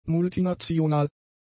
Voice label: "multinational", German; adjectives

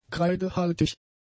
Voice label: "kreidehaltig", German; adjectives

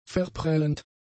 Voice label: "verprellend", german; adjectives

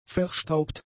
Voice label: "verstaubt", German; adjectives

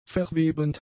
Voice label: "verwebend", German; adjectives

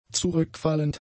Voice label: "zurückfallend", German; adjectives

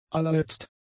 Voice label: "allerletzt", German; adjectives

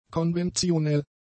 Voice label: "konventionell", German; adjectives

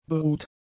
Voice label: "beruht", German; adjectives

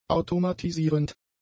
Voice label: "automatisierend", German; adjectives

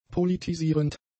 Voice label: "politisierend", German; adjectives

